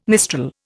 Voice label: "mistral", Indian